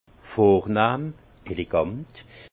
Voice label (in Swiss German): "vornahm", Alsace; vin; élégant